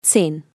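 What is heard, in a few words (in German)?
"zehn", Zahlen deutsch weiblich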